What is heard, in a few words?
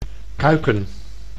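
"kuiken", Dutch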